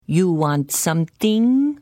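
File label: Hello, Khajiit female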